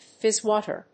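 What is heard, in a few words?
"fizzwater", English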